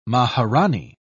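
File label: "maharanee", British English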